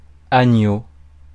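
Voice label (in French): "agneau" French; Mots francais phonemes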